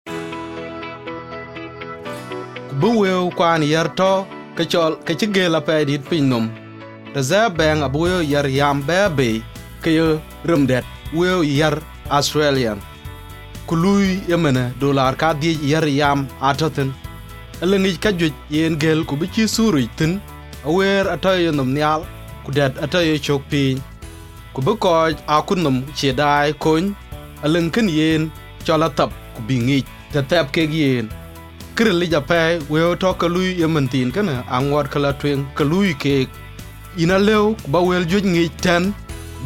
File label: radio ad translation dinka